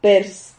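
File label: "pers", Spanish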